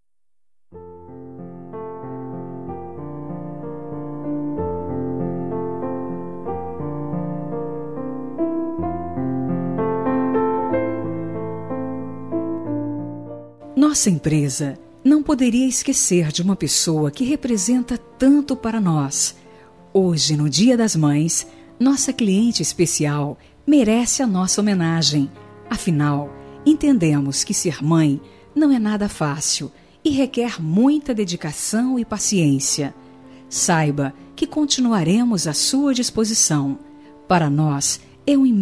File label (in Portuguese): Dias das maes, instrumental, telemensagem; telemensagem dia das maes diversos